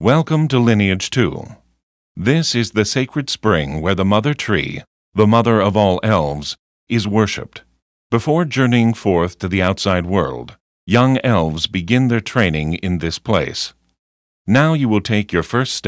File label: tutorial voice; lineage2